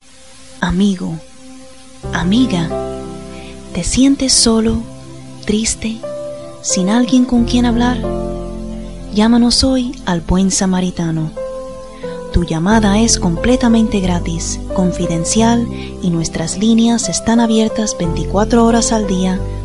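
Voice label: Latin American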